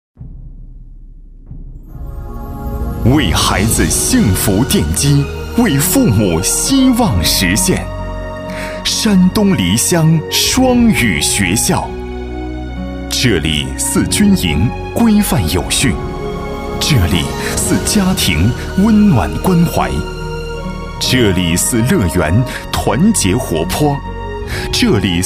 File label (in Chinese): 专题 学校 磁性, 男; 梨乡双语学校